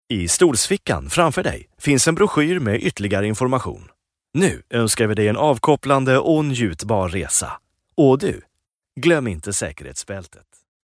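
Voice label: Swedish, male, interactive voice response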